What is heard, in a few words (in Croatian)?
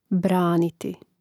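"braniti", Hrvatski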